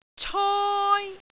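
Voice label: "coi1", Cantonese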